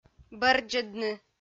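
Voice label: "бӧрддзӧдны", Komi-Zyrian, female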